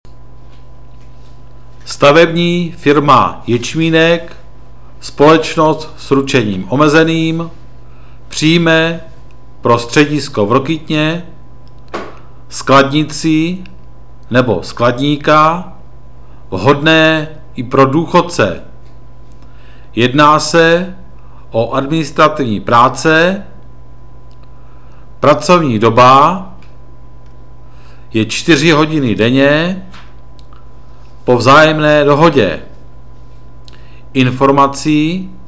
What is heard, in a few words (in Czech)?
reklamni hlaseni; Jecminek